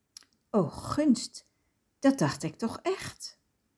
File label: dutch